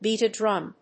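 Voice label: "beat a drum", English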